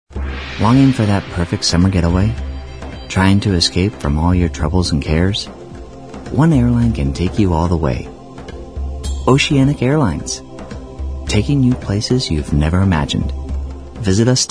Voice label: Commercial

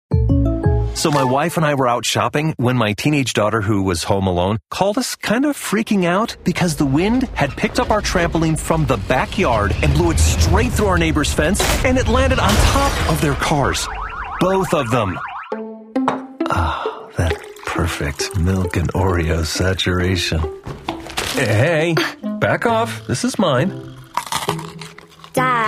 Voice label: Commercial

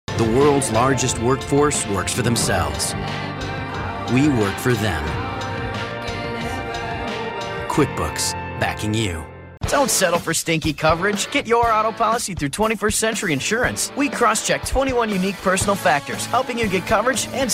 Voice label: Commercial

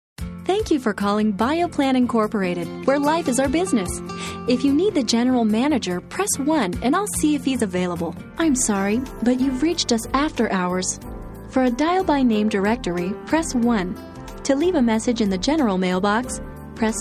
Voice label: ivr

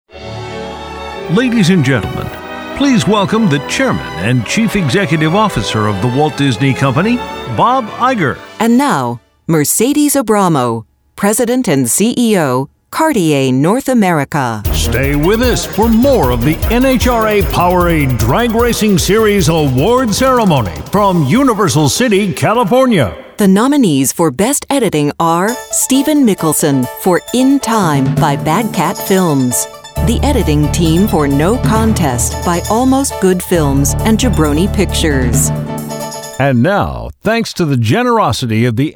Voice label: announce

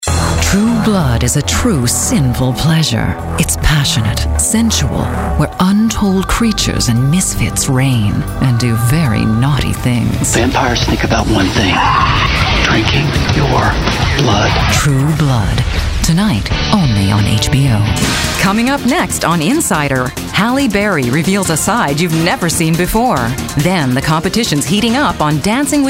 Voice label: Promo

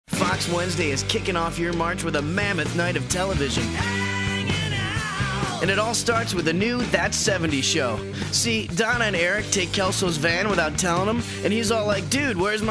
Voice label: promo

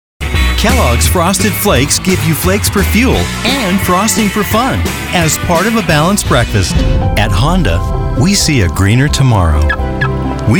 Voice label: Commercials

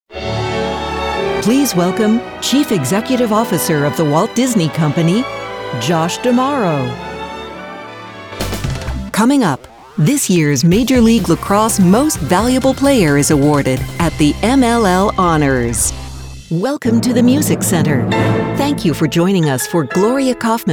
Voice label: VOG

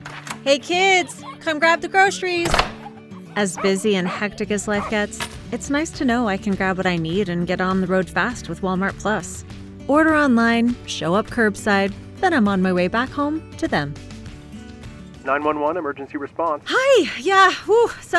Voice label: Commercial

